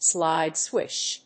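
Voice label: "SLIDE SWITCH", English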